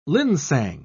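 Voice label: "linsang", British English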